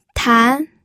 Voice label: "tan2", pinyin

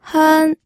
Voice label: "hen1", pinyin audio

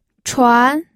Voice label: "chuan2", pinyin

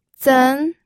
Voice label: "zen2", pinyin

